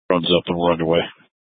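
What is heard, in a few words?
Incident Tac, Scanner audio recording; Incident Tac 3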